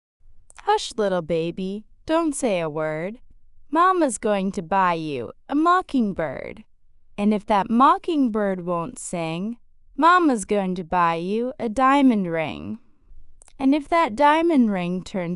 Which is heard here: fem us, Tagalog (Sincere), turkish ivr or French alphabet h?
fem us